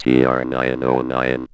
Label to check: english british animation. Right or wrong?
Wrong